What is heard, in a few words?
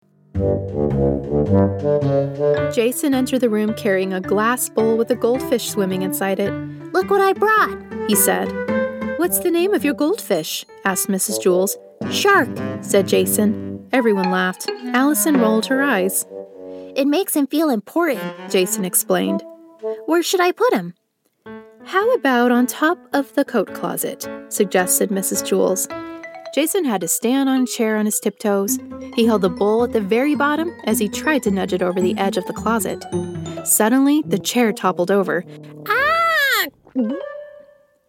american voice actor audiobook, Millennial American Female